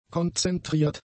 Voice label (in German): "konzentriert", German; adjectives